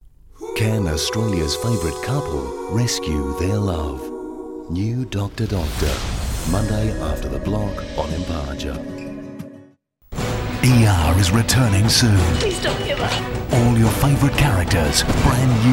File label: TV Promos